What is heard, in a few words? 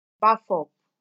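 "baff up", Nigerian Pidgin, female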